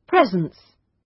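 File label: "presence", British; Flora and fauna, agriculture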